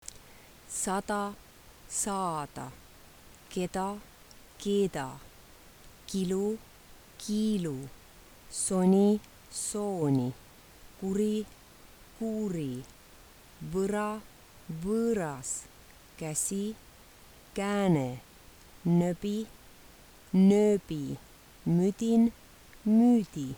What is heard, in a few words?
long short, Estonian